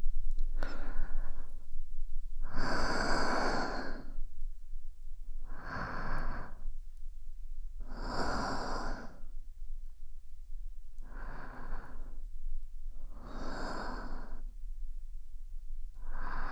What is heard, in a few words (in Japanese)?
添い寝